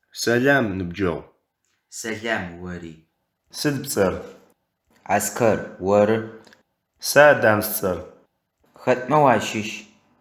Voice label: dialog